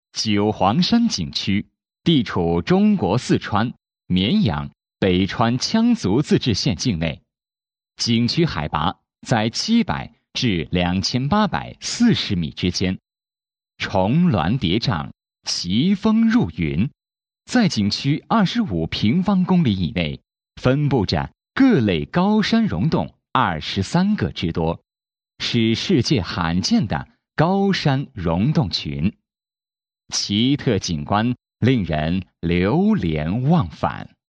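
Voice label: Chinese, male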